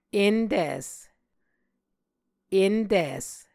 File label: "entis", Muscogee